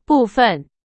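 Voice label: Chinese, vocabulary; hsk2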